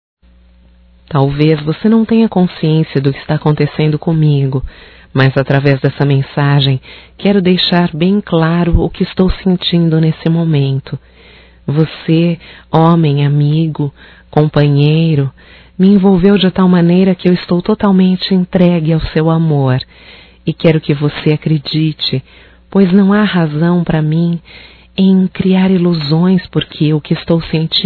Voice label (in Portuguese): Romântico Feminino